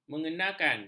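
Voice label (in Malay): "mengenakan", Malay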